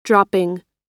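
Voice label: "dropping", American English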